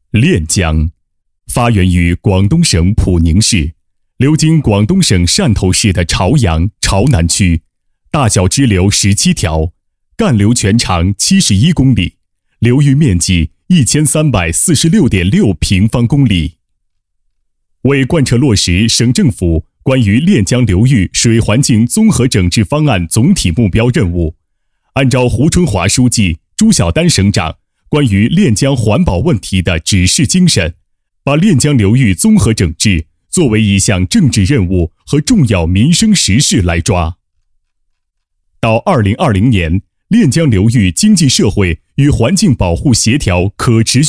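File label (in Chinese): 国语 男声 专题（沉稳 大气） 大气浑厚磁性 沉稳; 练江专题片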